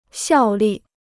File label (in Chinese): "效力", Chinese